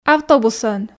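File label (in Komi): "автобусӧн", Komi, female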